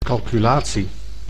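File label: "calculatie", Dutch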